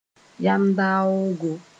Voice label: "yam-daoogo", Moore